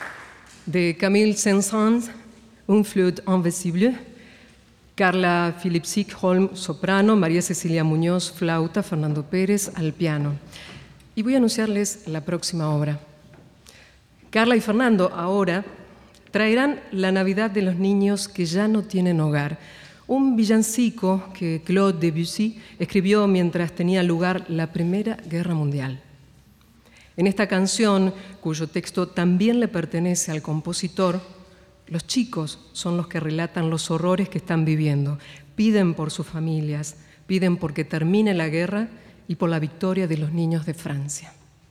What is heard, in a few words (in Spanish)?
locutora